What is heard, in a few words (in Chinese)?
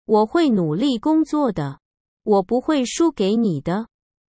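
"我会努力工作的。我不会输给你的！", Chinese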